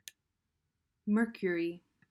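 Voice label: "mercury", English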